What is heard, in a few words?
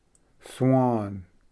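"swan", English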